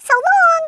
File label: "bye bye", Brooklyn; Worms Armageddon